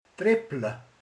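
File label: "csepeg", Hungarian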